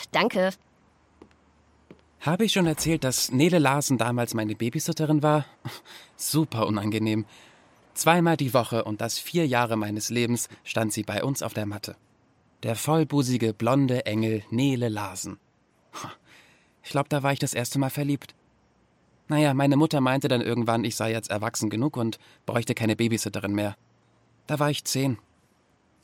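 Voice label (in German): Hörspiel; Babysitter in crush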